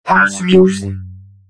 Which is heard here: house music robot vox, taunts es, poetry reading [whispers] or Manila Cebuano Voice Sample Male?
house music robot vox